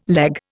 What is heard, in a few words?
"leg", Indian